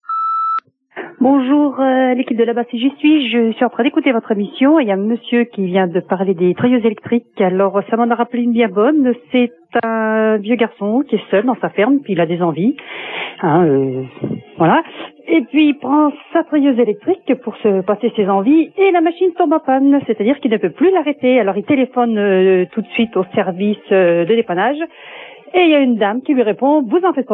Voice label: Trayeuse erotique repondeur

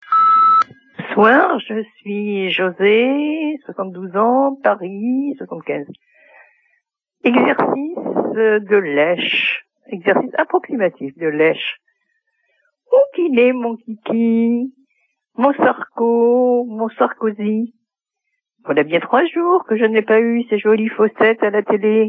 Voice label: repondeur; Sarkosy

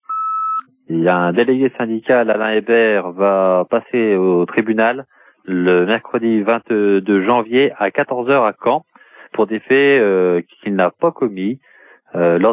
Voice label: Repression syndicale repondeur

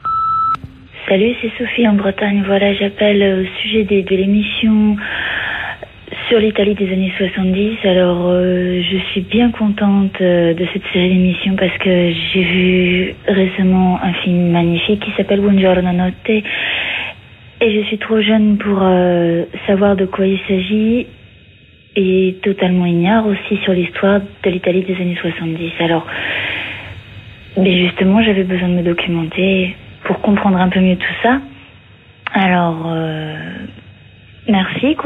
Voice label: répondeur; Annees de plomb

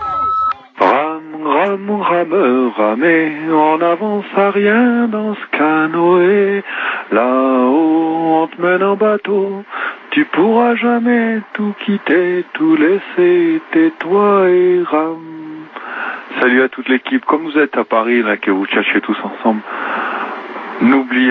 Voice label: repondeur; FSE

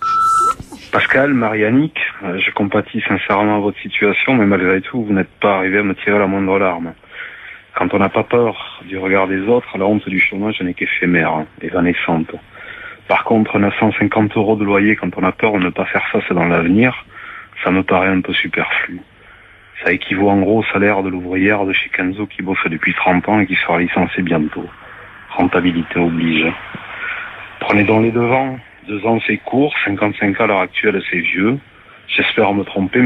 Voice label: repondeur; Cadre jetable; SDF

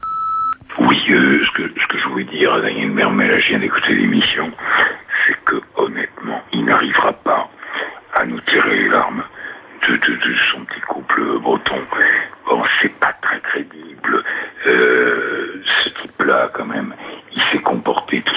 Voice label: repondeur; Cadre jetable

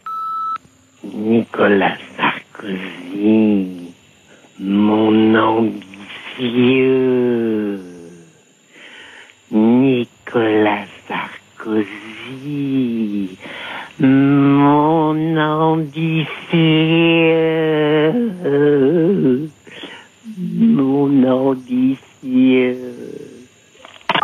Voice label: répondeur; Nicolas Sarkosy

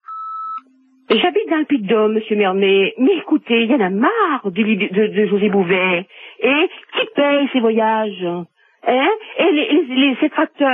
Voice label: en prison anti repondeur

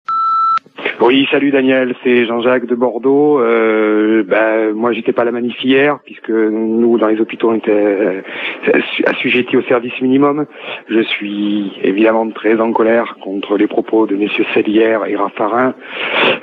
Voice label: Manif retraites urgentiste repondeur

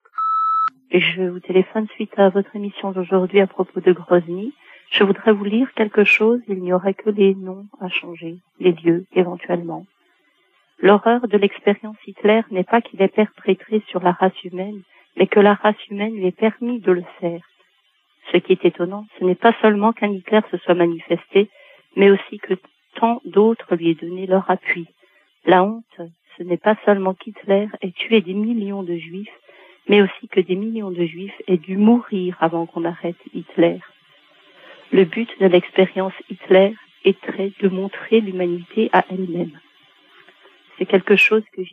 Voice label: Tchetchenie repondeur

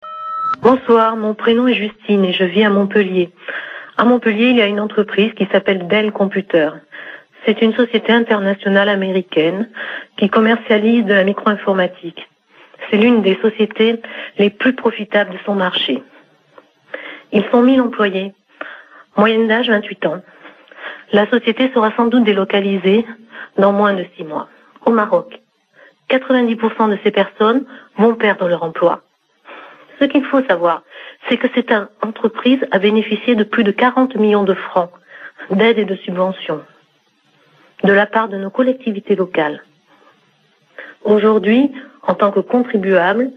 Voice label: répondeur; Metaleurop

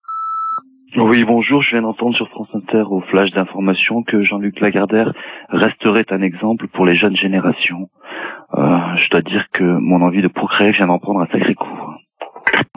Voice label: Mort Lagardere repondeur

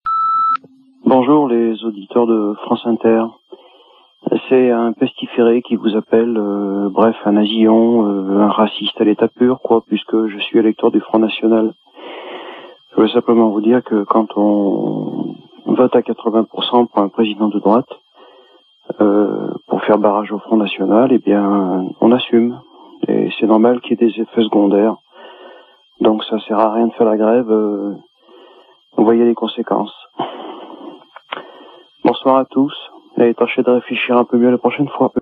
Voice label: Manif retraites FN repondeur

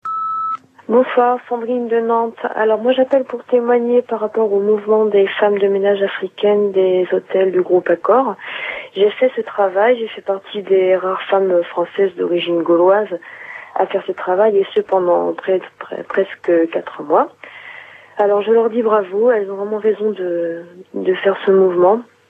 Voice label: répondeur; ACCOR

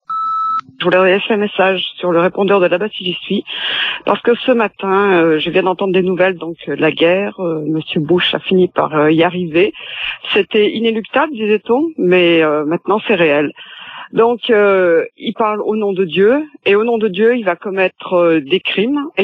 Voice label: Irak repondeur

